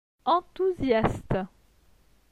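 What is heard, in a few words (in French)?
"Enthousiaste", French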